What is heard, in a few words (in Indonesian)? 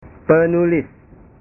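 "penulis", Indonesian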